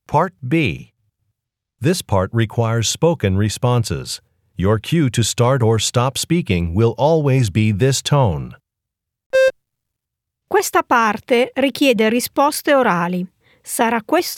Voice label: italian directions